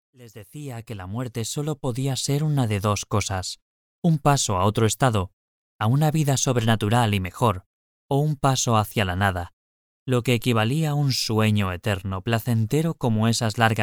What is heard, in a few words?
spanish audiobooks